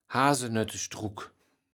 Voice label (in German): "Hasenöttestruck", Platt